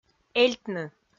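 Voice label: "эльтны", Komi, female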